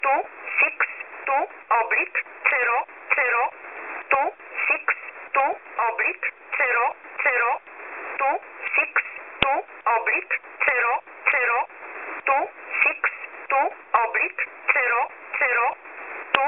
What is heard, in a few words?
9610kHz, number stations; web SDR; dash number stations recordings; dash recordings from web sdr